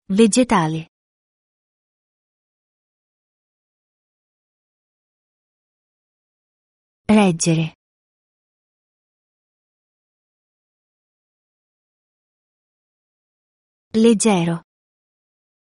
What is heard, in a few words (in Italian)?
dettato pronuncia c g sc